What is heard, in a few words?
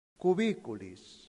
"cubiculis", latin; santillana